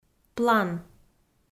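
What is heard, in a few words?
"план", Komi, female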